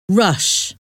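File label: "rush", English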